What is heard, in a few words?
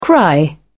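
"cry", British English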